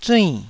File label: "zuinn4", Taiwanese